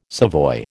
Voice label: "savoy", American